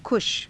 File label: "kusha", Hindi